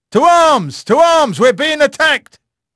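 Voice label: English male; Pirates Of The Caribbean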